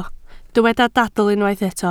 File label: "dadl", Welsh